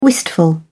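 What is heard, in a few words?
"wistful", English, British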